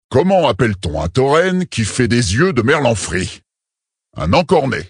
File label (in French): Male; Tauren Haut Roc; Blague